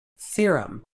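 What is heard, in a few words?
"serum", British English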